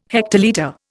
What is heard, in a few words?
"hectolitre", Indian